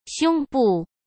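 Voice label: "胸部", Mandarin Chinese; hsk; chinese-flashcards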